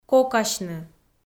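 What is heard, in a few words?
"кокасьны", Komi, female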